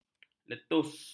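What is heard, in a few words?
"letus", Malay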